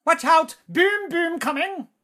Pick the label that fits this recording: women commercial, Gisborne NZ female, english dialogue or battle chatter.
battle chatter